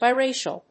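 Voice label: "biracial", English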